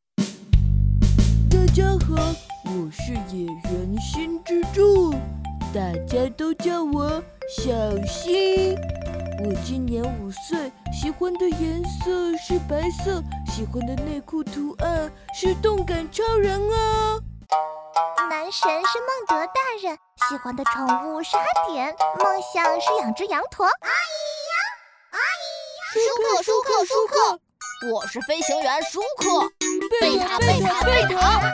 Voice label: 女 童声 男童女童

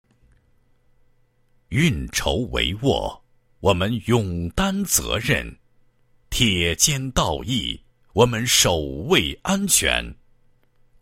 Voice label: 男 纪实 推进安全优质标准线建设纪实

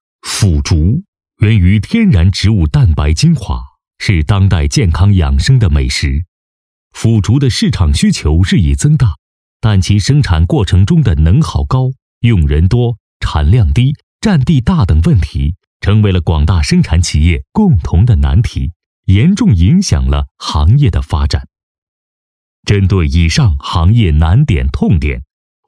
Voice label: 男 专题 豆制品有限公司宣传解说; 冠珍轩

